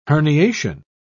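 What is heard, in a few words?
"herniation", British English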